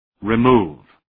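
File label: "remove", English word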